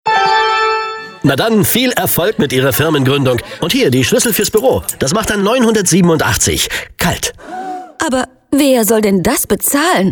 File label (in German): funkspot; reichelt